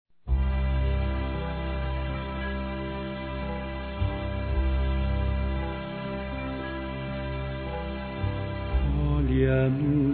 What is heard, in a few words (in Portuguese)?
CASADOS, masc